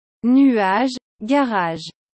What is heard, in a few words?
Nuage garage french